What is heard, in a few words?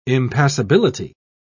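"impassability", British English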